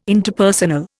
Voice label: "Interpersonal", Indian

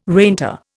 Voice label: "reinter", Indian

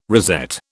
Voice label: "rosette", British

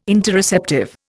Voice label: "Interoceptive", Indian

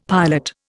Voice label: "pilot", Indian; Dictionary

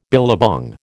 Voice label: "billabong", American

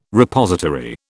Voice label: "Repository", British

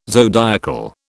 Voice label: "zodiacal", British

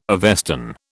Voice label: "Avestan", British

